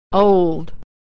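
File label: "old", American English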